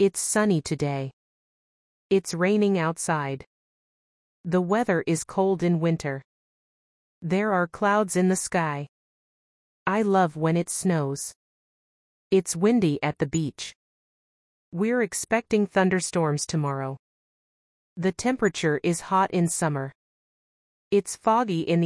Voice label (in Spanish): gramatica clase ingles; Repaso 19: Weather (Tiempo atmosférico)